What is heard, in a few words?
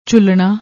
"jhulnna", Punjabi